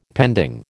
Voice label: "pending", American

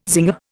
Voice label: "zinger", Indian

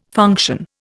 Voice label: "function", Indian

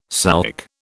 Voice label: "souk", British

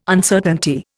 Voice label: "Uncertainty", Indian

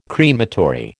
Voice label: "crematory", American

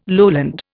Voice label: "lowland", Indian

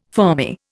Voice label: "fermi", Indian

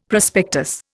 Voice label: "prospectus", Indian; Dictionary

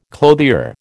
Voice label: "clothier", American

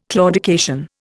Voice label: "claudication", Indian

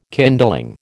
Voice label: "kindling", American

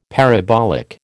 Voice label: "parabolic", American

